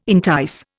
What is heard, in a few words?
"entice", Indian